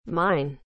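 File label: "mine", English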